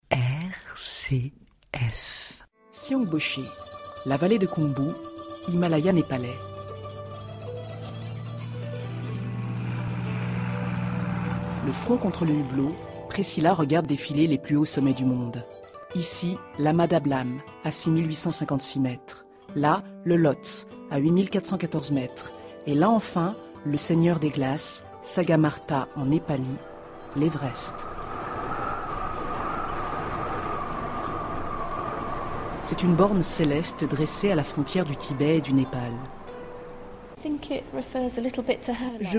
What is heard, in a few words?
french female voiceover